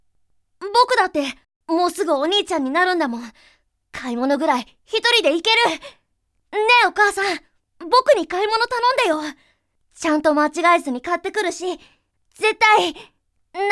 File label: boy